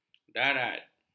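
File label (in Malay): "darat", Malay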